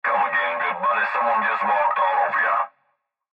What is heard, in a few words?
Cb Trucker Radio; Cb Radio Male Come Again Good Buddy; Radio Voice; Blastwave